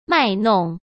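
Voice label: "卖弄", Mandarin Chinese; hsk; chinese-flashcards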